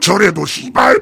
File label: heavy positive vocalization